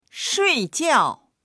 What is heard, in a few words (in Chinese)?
"shui4jiao4", voices; 睡觉; 眠る